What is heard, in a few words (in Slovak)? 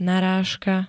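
"narážka", Slovak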